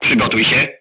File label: voice message load, crew tanks polish